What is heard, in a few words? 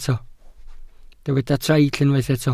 "traill", Welsh